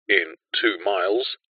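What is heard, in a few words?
technology sat nav voice male british says in two miles; uk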